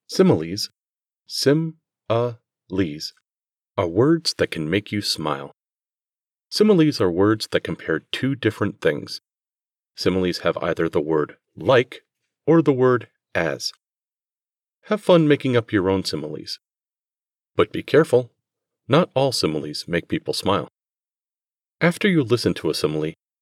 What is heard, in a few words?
Educational Young Children; audiobooks